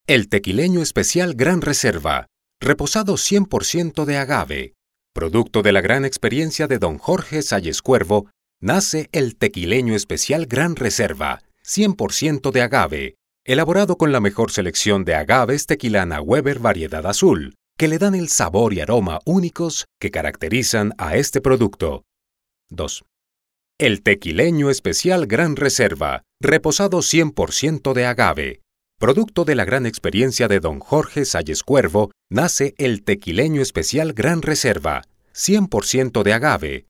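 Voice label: Spanish narration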